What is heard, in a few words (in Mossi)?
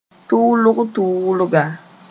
"tʋʋlg-tʋʋlga", moore; quickly